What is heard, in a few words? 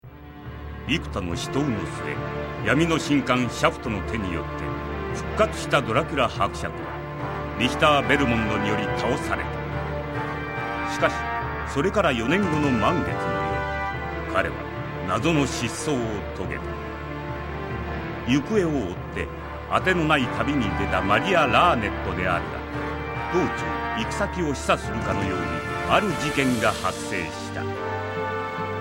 Narration